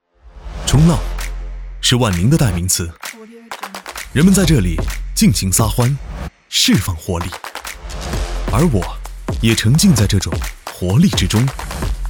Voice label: 宣传年轻活力

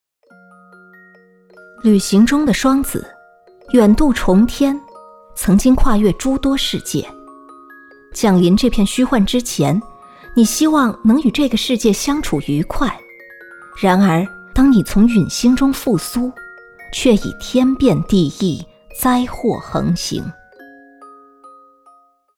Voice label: 成熟御姐音

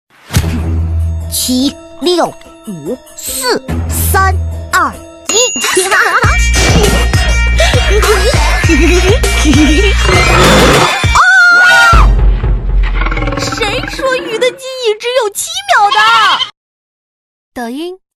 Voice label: 系列男童; 苏宁代言人小狮子

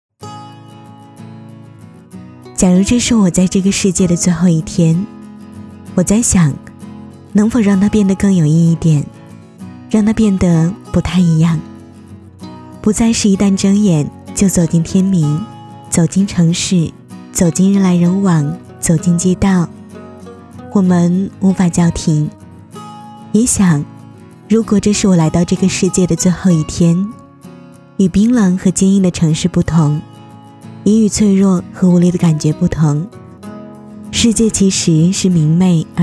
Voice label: 广告 推荐 质感 高端大气 高端广告 微电影 唯美旁白

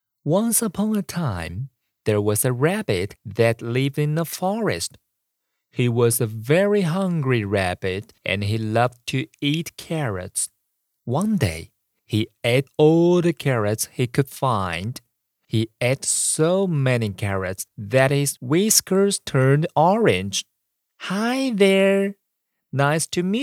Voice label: 英语

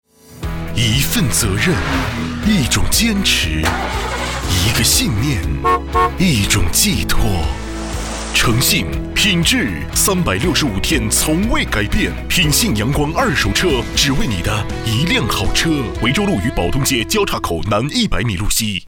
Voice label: 温暖服务汽车企业

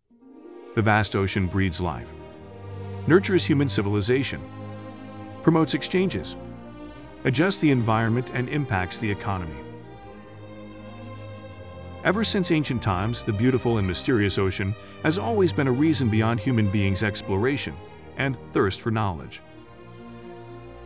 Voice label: 宣传片磁性大气; 中国海洋大学

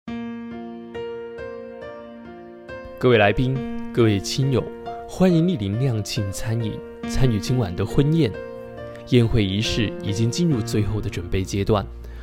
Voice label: 国语 婚礼 提醒

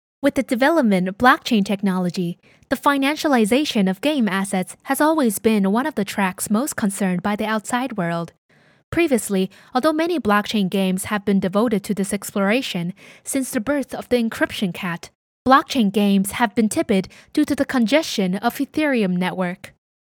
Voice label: 英语旁白; 区块链

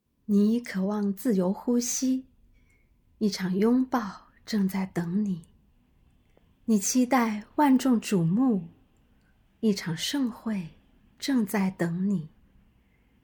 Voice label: 女试音样音

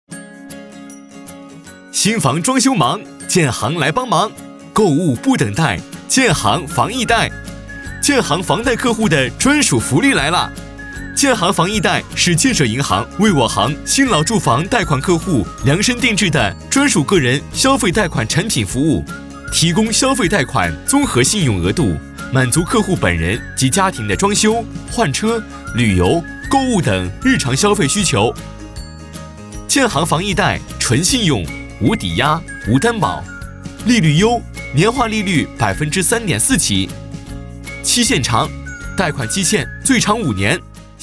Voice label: 轻松轻快; 建行房易贷

